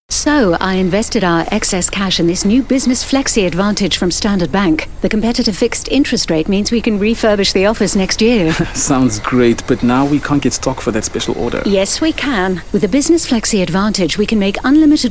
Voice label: English Corporate